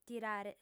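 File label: "tirare", Sardinian, female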